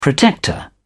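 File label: "protector", British English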